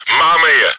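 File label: enemy killed by player, чешской озвучки; Máme je!; Czech; Мы их сняли!; World of Tanks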